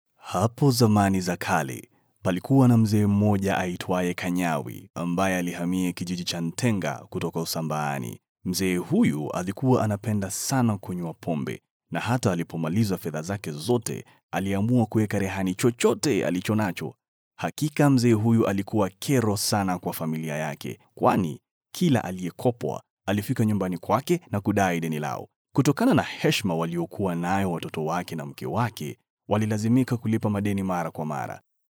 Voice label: male swahili voice over narrative